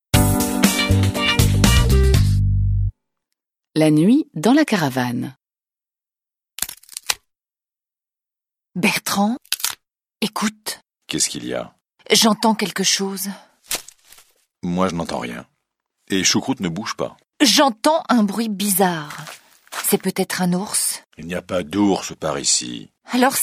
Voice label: French